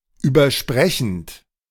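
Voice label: "übersprechend", German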